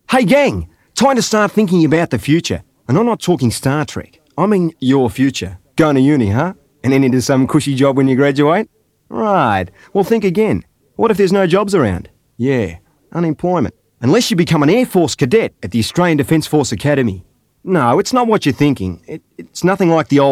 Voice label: Voice Over; Airforce Academy